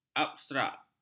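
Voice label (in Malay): "abstrak", Malay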